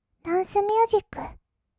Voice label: ダンスミュージック, kawaii voice; 1200 kawaii voice!!; 音楽ジャンル

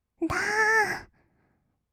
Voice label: だーっ, kawaii voice; ASMR; 1200 kawaii voice!!; 音ゲー

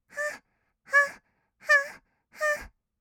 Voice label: はっはっ, kawaii voice; ASMR; 合いの手; 1200 kawaii voice!!

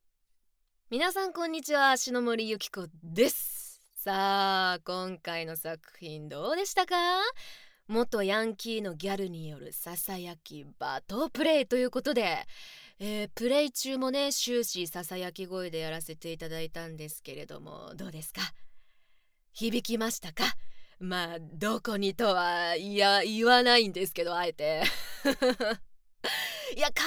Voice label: フリートーク; SEなし

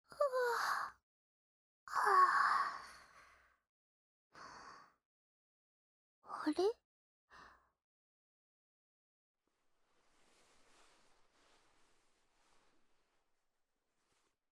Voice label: 添い寝, ASMR

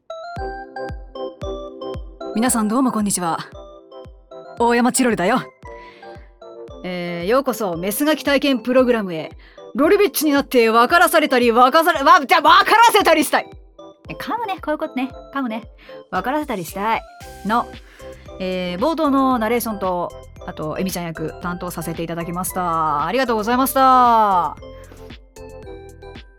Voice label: フリートーク, ASMR

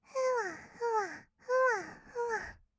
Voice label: ふわふわ, kawaii voice, 合いの手; 1200 kawaii voice!!; ASMR

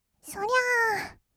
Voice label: "そりゃー", kawaii voice; ASMR; 1200 kawaii voice!!; 音ゲー

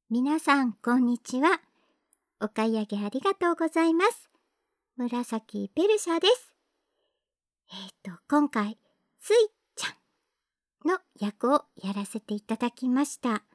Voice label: フリートーク

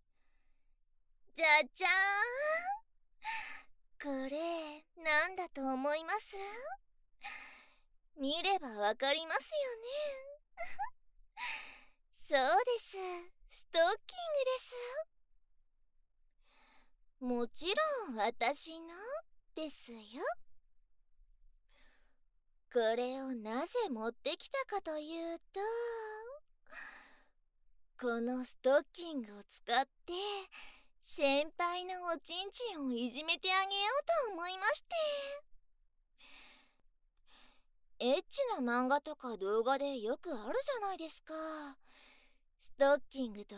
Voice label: ローションパンスト責め, ASMR

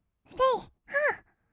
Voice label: せい は, kawaii voice; 音ゲー

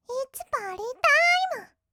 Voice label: いっつぱーりーたーいむ, kawaii voice; 音ゲー

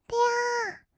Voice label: "てやー", kawaii voice; 音ゲー

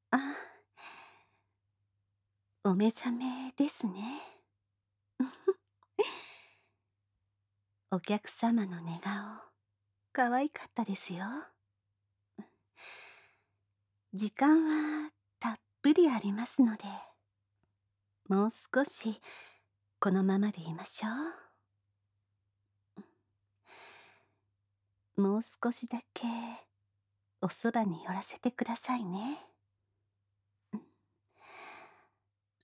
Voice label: 添い寝【添い寝】, ASMR; SE無し

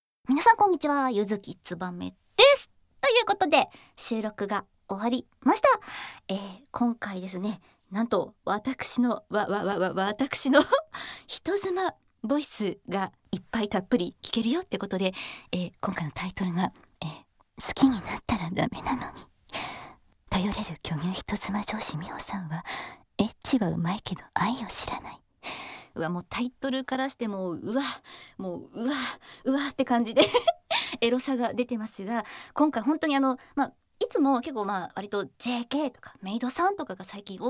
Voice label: フリートーク

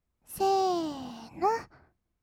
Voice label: せーーーの, kawaii voice; ASMR; 1200 kawaii voice!!; 音ゲー

